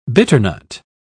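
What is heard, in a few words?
"bitternut", British English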